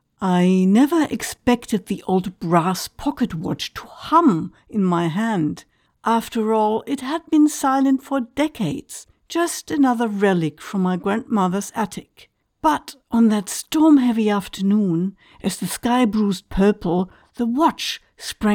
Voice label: Fiction Time travel History English